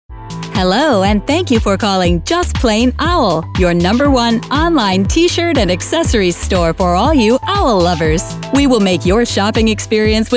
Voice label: ivr